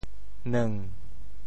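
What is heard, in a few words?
"neung7", Teochew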